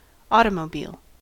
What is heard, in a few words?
"automobile", us